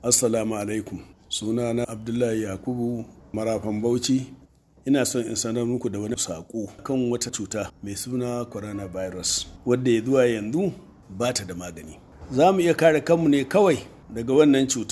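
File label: TRADITIONAL LEADER, Hausa; BAUCHI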